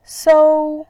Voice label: "sou1", voice

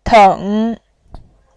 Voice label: "teng3", voice

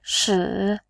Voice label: "shi3", voice

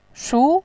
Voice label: "shu2", voice

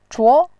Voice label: "zhuo2", voice

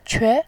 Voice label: "que2", voice

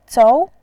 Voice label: "zou2", voice

